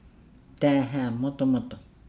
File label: "coconut daahaa modomodo", Nukuoro